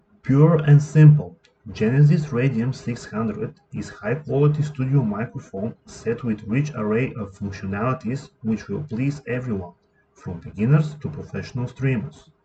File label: base mic laptop test; Genesis Radium 600